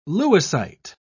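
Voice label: "lewisite", British English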